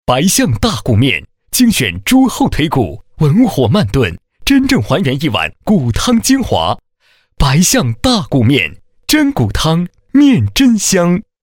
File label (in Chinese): 男 广告 科技; 食品饮料; 白象方便面